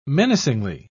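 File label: "menacingly", British English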